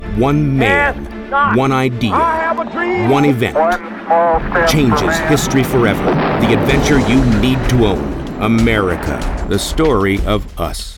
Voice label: Dramatic